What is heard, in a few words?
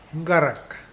"flea", Mokilese